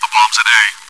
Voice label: chitchat, bot, voice commands; svencoop